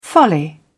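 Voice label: "folly", British English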